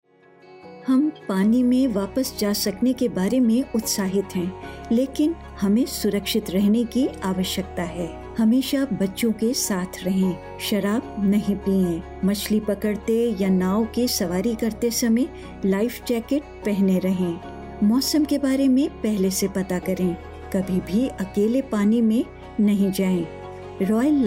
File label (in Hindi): Life Saving HINDI; Water Safety Community Announcement